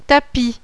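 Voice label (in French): "TAPIS", French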